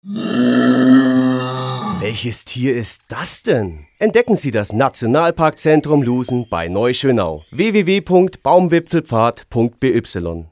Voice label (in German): Werbung Reminder; Erlebnis Akademie